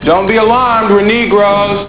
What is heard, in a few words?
alarmed; badboys